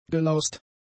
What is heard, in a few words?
"gelaust", German; adjectives